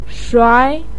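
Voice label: "shuai", Mandarin Chinese syllable, second tone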